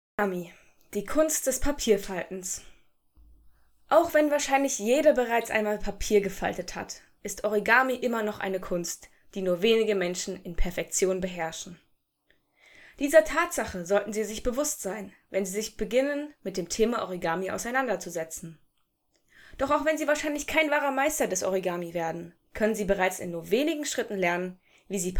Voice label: German, Female